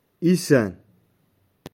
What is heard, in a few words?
"Isan", Touareg; Viande